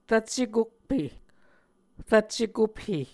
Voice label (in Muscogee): "rvcce kophe", Muscogee; Mvskoke Words with Repeated Consonants; Onvkv Ohhvyayices; A Dictionary of Creek/Muskogee; SIL International